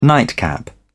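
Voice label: "nightcap", English, British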